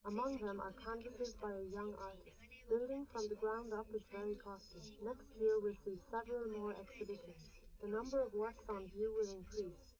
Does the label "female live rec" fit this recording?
Yes